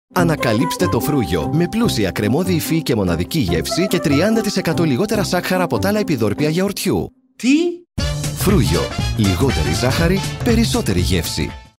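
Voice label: Greek, Commercial; GoLocalise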